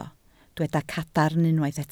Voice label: "cadarn", Welsh

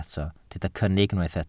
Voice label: "cynnig", welsh

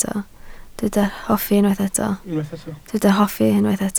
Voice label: "hoffi", Welsh

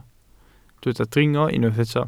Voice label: "dringo", Welsh

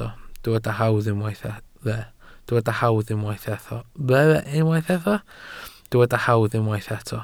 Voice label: "hawdd", Welsh

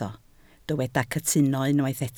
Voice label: "cytuno", Welsh

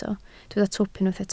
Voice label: "twp", Welsh

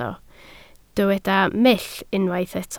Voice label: "mull", Welsh

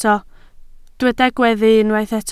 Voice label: "gweddu", Welsh

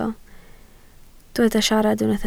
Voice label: "siarad", Welsh

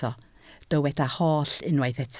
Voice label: "holl", Welsh

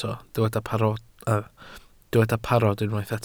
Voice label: "parod", Welsh

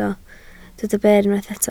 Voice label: "ber", Welsh

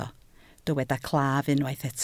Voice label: "claf", Welsh

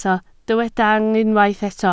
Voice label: "yng", Welsh

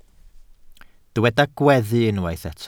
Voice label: "gweddu", Welsh

